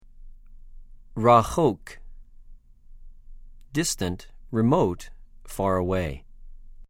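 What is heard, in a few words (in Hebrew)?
"רָחוֹק", Hebrew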